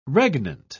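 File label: "regnant", British English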